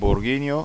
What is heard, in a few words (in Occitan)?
"borguinh", Occitan